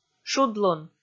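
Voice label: "шудлун", Komi, female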